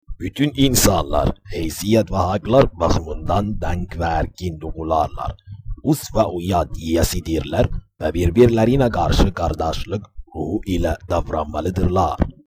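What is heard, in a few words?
Azerbaijani Turkish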